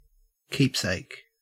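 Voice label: "keepsake", Australian English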